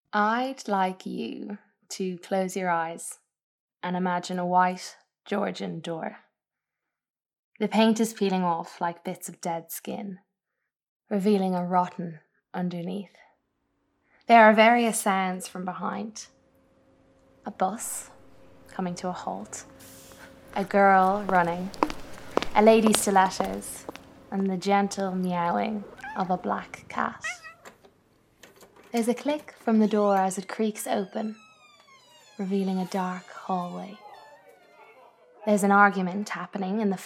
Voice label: Drama; 20s-30s. Male. Irish.